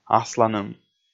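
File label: "асланым", Komi, male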